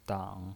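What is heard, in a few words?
"dang", Mandarin Chinese pinyin voice, third tone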